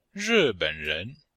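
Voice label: "ri4ben3ren2", Chinese; Cours-de-Chinois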